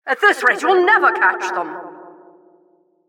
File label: Announcer race general